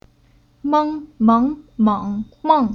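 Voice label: "Meng", Chinese syllable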